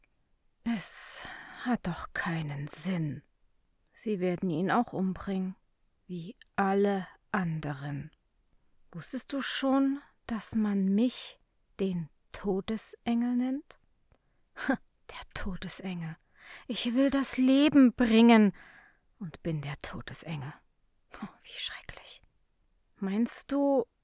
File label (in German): Verzweifelt